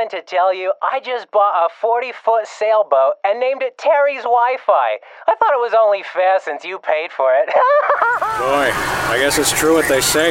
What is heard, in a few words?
Character